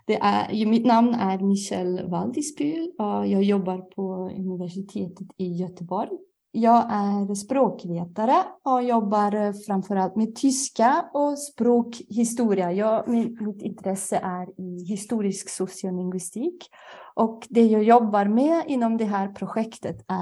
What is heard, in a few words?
abstract swedish